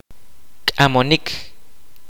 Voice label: "carry", kaqchikel